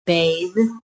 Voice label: "BATHE", american